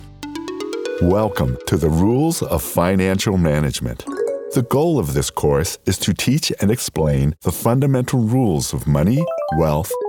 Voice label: financial Elearning Narration